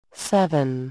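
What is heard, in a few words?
"Seven", English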